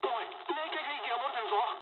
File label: damaged water mood med, speech jp; War Thunder